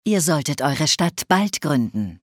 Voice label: Build City Soon, Speech German Advisors; Civ5